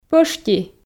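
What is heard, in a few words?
"пӧшти", Komi, female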